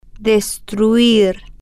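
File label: "destruir", slow, spanish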